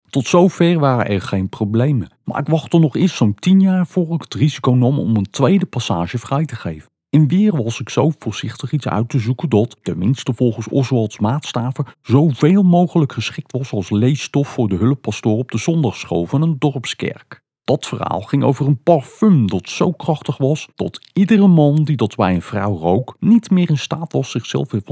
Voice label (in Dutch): NL Rotterdams